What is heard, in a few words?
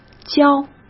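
"浇" Mandarin Chinese; chinese flashcards; hsk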